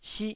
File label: "sih4", Taiwanese syllable